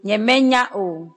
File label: i dont know, ewe